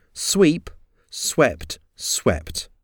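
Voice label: "sweep swept swept", English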